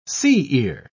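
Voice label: "sea-ear", English, British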